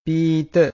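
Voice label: "piita", Pali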